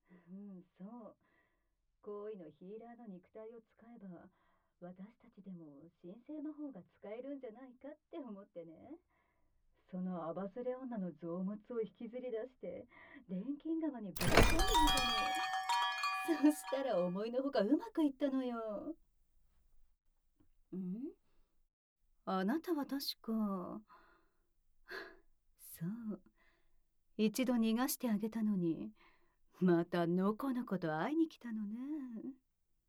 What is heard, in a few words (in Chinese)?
倒数＆小狗乞求自慰（无射精）, ASMR